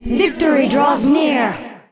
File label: Warcry; warcraft3; Evil Sylvanas